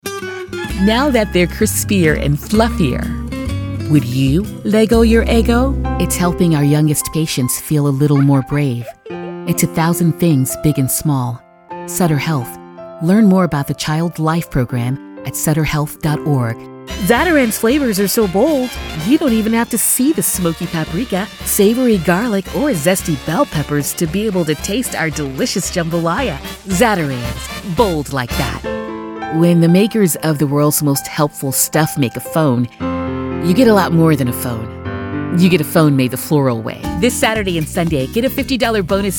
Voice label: Commercial